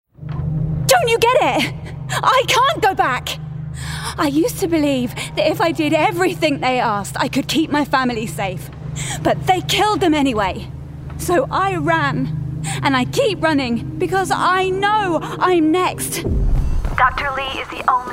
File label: Game Character Voice reel